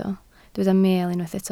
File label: "meel", Welsh